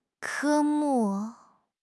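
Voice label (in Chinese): "科目", Chinese; HSK6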